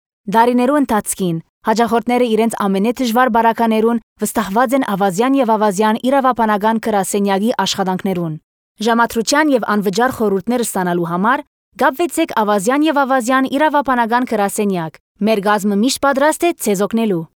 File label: armenian ivr